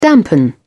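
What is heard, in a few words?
"dampen", British English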